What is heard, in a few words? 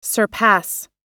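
"surpass", American English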